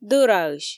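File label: "дӧраысь", Komi, female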